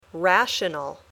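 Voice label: "rational", English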